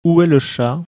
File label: "où chat", French